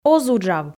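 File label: "оз уджав", Komi, female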